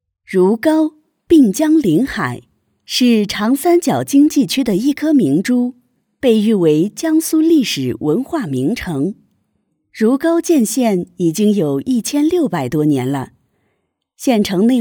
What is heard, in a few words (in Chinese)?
专题地区宣传片